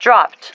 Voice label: "dropped", female